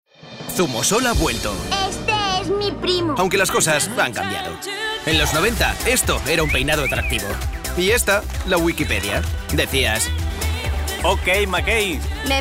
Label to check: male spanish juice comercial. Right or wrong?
Right